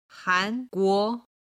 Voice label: "hanguo", chinese

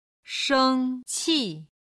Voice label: "sheng1qi4", chinese

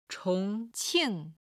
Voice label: "chong2qing4", chinese

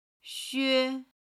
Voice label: "xue", Chinese

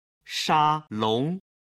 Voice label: "sha long", Chinese

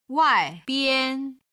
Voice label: "waibian", chinese

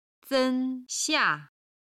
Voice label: "sen1 xia4", Chinese

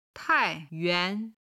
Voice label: "tai4 yuan2", Chinese

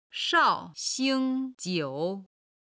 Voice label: "shao4xing1cai4", chinese

